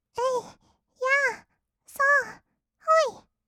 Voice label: えいやーそーほい, kawaii voice; ASMR; 合いの手; 1200 kawaii voice!!

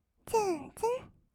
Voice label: "ツンツン", kawaii voice; 1200 kawaii voice!!; 色々なワンショット

